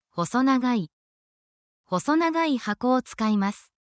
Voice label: jp tango; mastering 「how things are」 describing states in japanese 『状態』

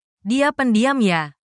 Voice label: indonesia, indonesian negative phrases

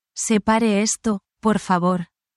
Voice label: spanish, spanish phrases

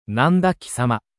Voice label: anime

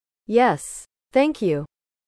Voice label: english

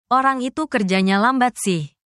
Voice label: indonesia, negative phrases

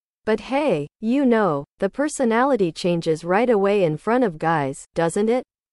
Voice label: english

